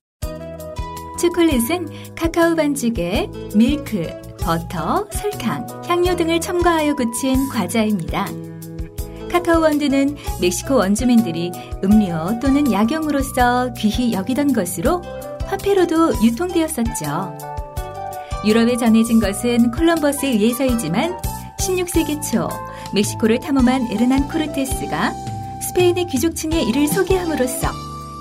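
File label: Korean, female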